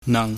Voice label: "nang", pingyam

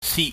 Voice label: "sit", Chinese

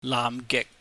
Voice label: "naahmgihk", Cantonese

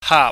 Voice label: "haap", chinese

